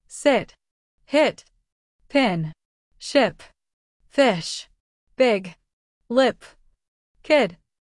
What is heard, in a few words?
Short I Sound; master english vowels